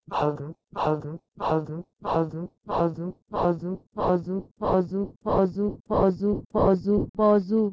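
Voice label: Proto-Indo-European bheh2gh to Persian bazu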